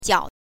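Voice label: "jiao", Mandarin Chinese, neutral tone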